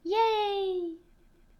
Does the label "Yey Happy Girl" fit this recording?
Yes